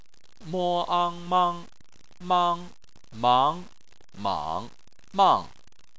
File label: "mang", Chinese